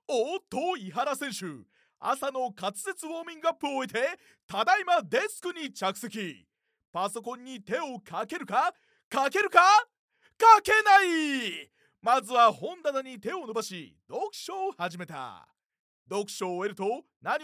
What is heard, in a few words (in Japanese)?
male, ナレーション, 実況中継風の; narrator men